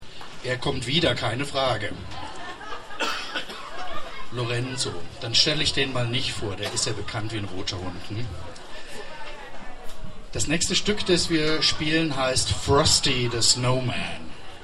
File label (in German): Ansage; Konzert X-Mas (Kathrainenmarkt, Kommlingen)